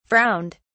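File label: "frowned", British English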